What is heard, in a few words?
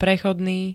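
"prechodný", Slovak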